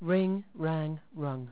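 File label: "ring", English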